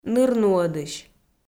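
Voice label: "нырнуӧдысь", Komi, female; audio dict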